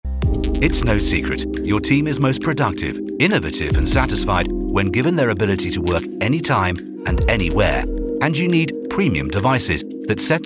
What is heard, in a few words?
english british explainer videos